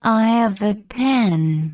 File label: english